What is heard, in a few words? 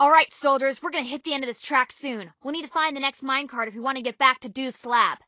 English Voice